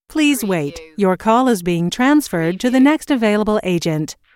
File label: US female phone prompt Please hold - Your call is being transferred to the next available; Please hold - Your call is being transferred to the next available agent; lynne-publishing